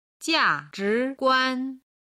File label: "jia zhi guan", Chinese